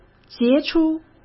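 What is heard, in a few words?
"杰出", Mandarin Chinese; chinese-flashcards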